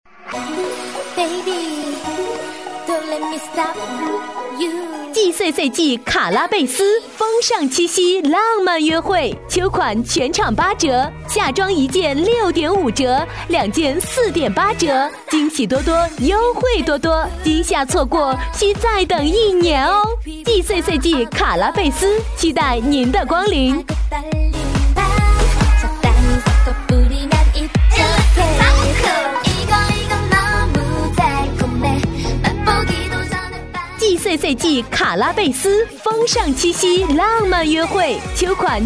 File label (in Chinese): 女 服装促销（欢快激情）